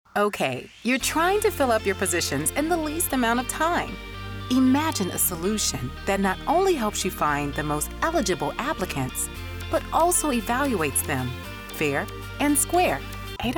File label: Narration